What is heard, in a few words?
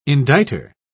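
"indicter", British English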